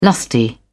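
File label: "lusty", British English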